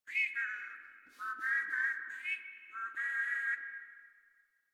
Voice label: Patrol, Grineer Male; Warframe